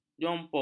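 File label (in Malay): "jompo", Malay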